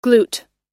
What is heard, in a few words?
"glute", pronounce